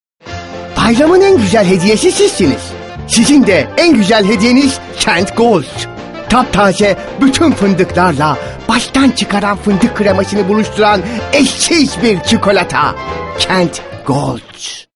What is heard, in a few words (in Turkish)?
animatik, seslendirme